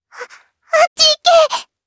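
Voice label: voice Skill, voices heroes, Japanese; Lavril; kingsraid; jp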